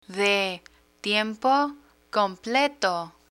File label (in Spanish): "de tiempo completo", Spanish